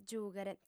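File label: "giùghere", female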